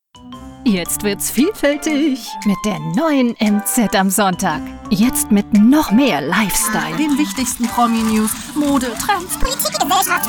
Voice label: aufgekratzt überdreht Spot; mz am sonntag; Muttersprache Deutsch

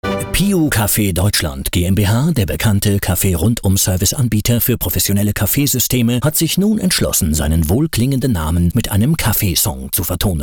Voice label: werbemix

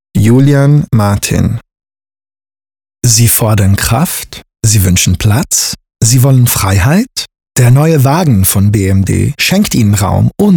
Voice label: dt spot neut

